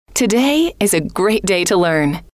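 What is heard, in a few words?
today Is A Great Day To Learn, female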